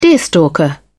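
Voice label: "deerstalker", British English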